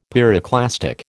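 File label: "pyroclastic", American English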